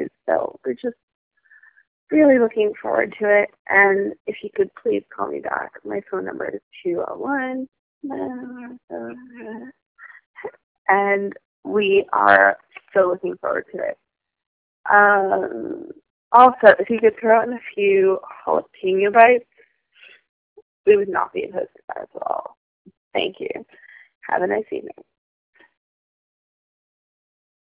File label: pizza voicemail